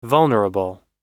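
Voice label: "vulnerable", American English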